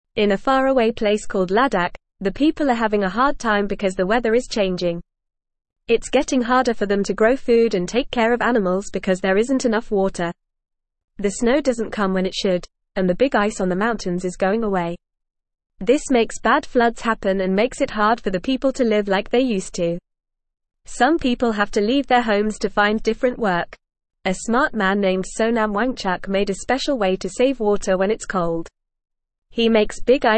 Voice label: English Newsroom Beginner FAST Reading; Saving Water in Ladakh Ice Towers Help People